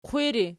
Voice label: "qwiri", Archi